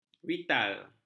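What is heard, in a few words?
"vital", Malay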